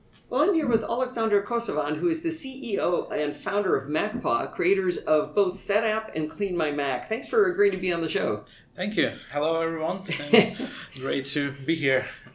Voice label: zoom h4n pro mics wrong direction